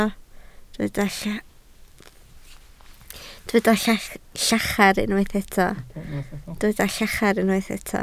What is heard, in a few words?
"llachar", Welsh